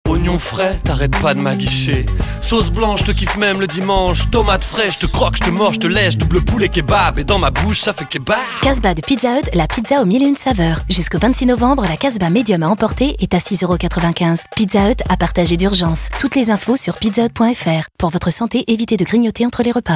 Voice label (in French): radiopub spot